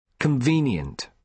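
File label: "convenient", British English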